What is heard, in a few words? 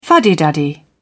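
"fuddy-duddy", British English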